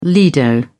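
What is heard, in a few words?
"lido", British English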